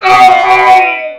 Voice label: Male Die Robot; gamerip; Mars Sortie